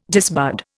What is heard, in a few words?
"disbud", Indian